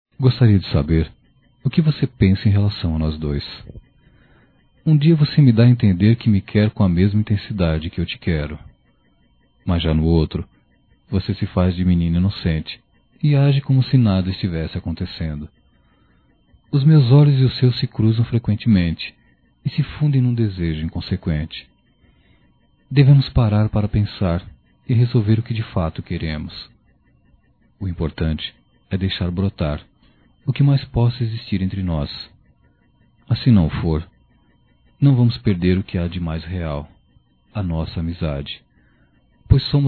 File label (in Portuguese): Se Decide Masculino, Só Voz; Telemensagens; Telemensagens 2017